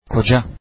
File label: turkish husband